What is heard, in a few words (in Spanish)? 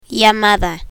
"llamada", Spanish